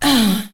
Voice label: "uh" female

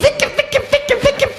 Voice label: vicka vicka vicka vicka, gibbrish

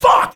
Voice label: "fuck", expletives

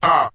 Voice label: "uh" group men; ooh ahh; uh um

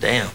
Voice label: "damn", expletives

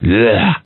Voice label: "bleh", ooh ahh

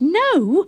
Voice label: "no", questioning; Voice; expletives

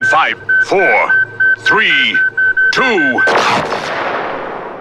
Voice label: 5 4 3 2 announcer; numbers and letters

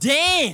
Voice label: "damn", expletives

